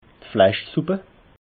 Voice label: "fleischsupp", alsace

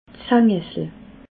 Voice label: "sangessle", alsace

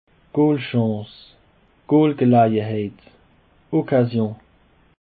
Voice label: "goolchance", Alsace

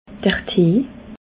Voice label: "tee", alsace

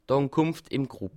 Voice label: "grupp", alsace

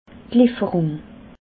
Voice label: "leferung", alsace

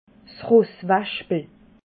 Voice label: "rosswaschpel", alsace

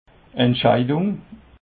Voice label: "entscheidung", alsace

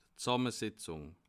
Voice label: "zsammesetzung", alsace

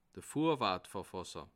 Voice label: "vorwortverfasser", Alsace

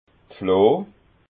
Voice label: "floh", alsace

